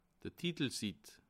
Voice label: "titelsitt", alsace

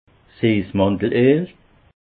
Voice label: "siessmandeleel", alsace

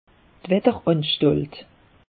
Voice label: "watter anstalt", alsace; meteo

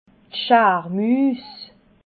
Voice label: "schaarmuus", alsace

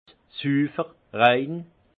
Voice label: "sufer", alsace; vin

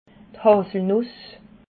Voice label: "haselnuss", alsace

